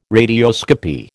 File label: "radioscopy", American